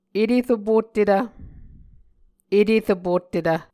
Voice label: "eteropottetv", Muscogee

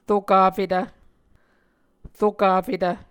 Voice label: "rokafetv", muscogee

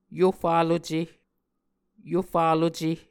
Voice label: "Yofaluce", Muscogee

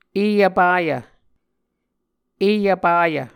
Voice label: "e vpayv", Muscogee

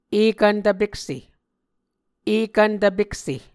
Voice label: ekvn tvpekse, muscogee

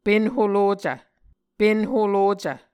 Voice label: "pen holocv", Muscogee

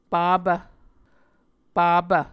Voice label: "papv", Muscogee

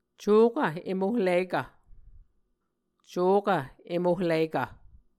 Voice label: "cokv emohlikv", Muscogee

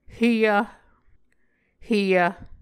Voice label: "heyv", Muscogee

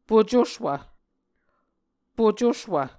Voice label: "pocoswv", Muscogee

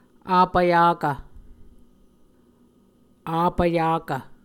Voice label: "apvyakv", Muscogee

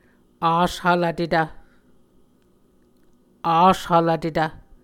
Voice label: "ashvlvtetv", Muscogee

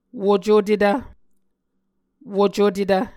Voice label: "wocotetv", Muscogee

